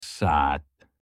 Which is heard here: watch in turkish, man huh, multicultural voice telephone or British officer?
watch in turkish